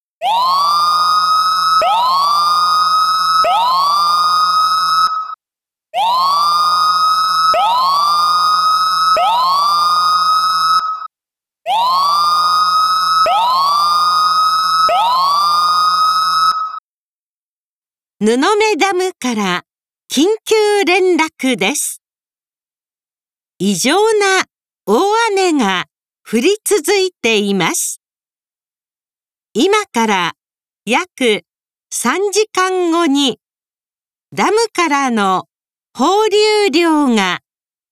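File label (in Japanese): 大雨による緊急時（異常洪水時防災操作が予想されるとき）の警報, 緊急効果音、音声放送; 3時間前：布目ダム管理所版; kizugawa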